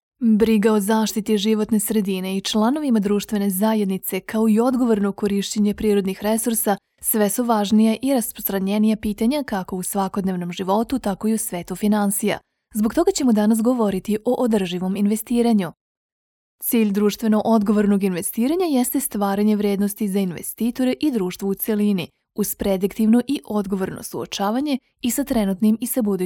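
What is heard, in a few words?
Bosnian, female; voice over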